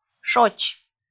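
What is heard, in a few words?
"шоч", Komi, female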